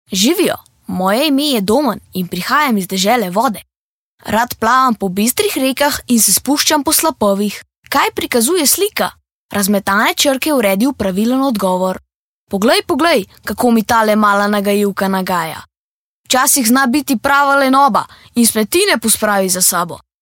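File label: slovenian male kid character; voice demos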